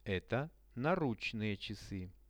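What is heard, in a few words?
"eto naruchnye chasy", Russian; Это наручные часы.; veshi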